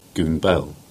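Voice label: "Goonbell" Cornish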